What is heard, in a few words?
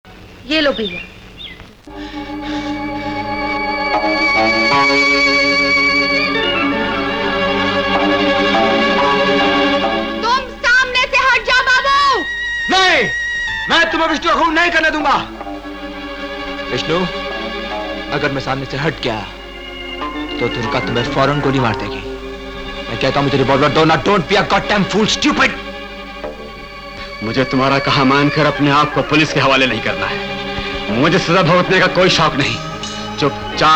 Dialogue Music; Yeh Lo Bhaiya; Bindia Aur Bandook